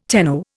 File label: "tenno", Indian